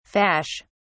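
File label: "fash", British English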